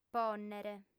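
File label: "pònnere", female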